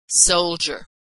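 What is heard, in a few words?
"soldier", American English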